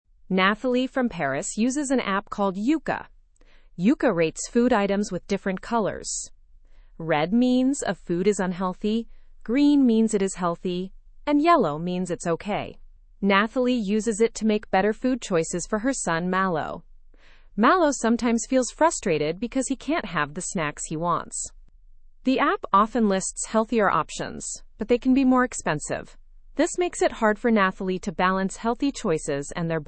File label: english through news lower intermediate fast; apps help people choose healthier foods